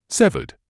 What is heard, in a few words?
"severed", English